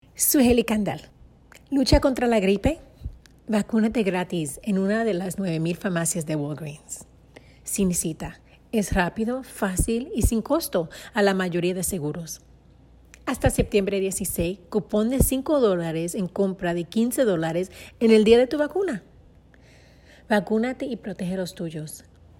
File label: Spanish; Commercial Demo; Voiceover